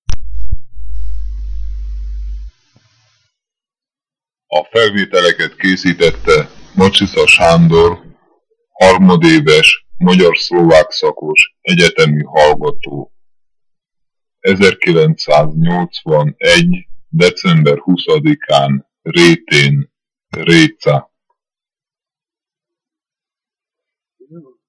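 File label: dialect collection; nyelvjaras gyujtesek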